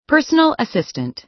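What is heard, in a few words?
"personal assistant", American English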